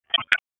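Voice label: Police Dispatch, p25; Raleigh; Southwest District; wake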